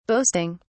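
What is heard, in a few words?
"boasting", British English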